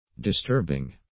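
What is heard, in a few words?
"disturbing", English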